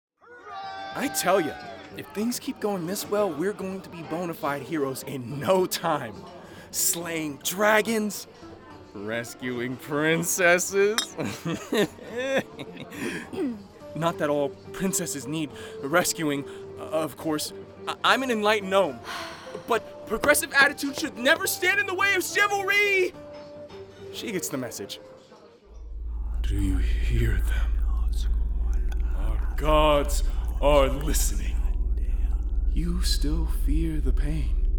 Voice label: Character